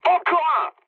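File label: French player killed enemy mood med; War Thunder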